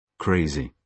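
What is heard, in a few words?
"crazy", British English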